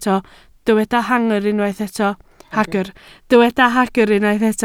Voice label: "hagr", Welsh

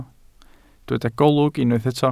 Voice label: "golwg", Welsh

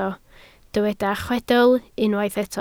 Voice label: "chwedl", Welsh

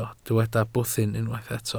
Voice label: "bwthyn", Welsh

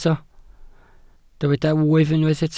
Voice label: "wyf", Welsh

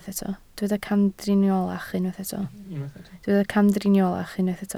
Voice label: "camdriniolach", Welsh

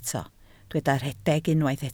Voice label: "rhedeg", Welsh

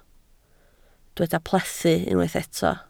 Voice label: "plethu", Welsh

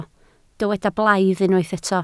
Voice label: "blaidd", Welsh

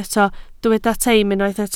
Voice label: "teim", welsh

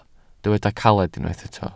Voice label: "caled", Welsh

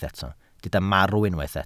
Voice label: "marw", welsh

